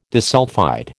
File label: "disulphide", American